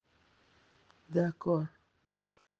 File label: voice message